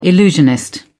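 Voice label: "illusionist", British English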